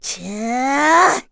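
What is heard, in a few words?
Vox Casting, Korean; Chrisha; kingsraid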